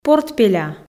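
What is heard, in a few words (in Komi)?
"портпеля" Komi, female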